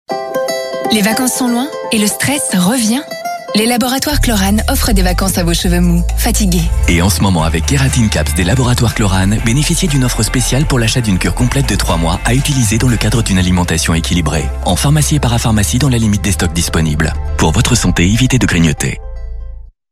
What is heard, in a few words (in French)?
expert chaleureux, voix off publicité; KLORANE